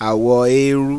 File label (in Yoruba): "AWO EERU", Yoruba; Gray